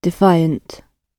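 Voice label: "defiant", British English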